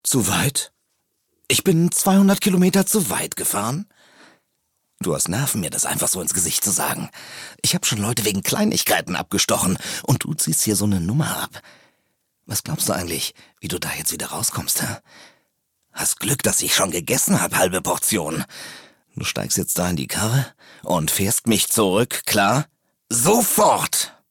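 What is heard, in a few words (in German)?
synchron, sprecher; LOFT VOICES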